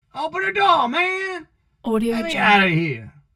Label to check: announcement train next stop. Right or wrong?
Wrong